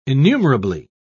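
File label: "innumerably", British English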